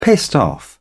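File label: "pissed off", British English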